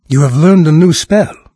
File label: new spell, NARRATOR, en-US; Fate Undiscovered Realms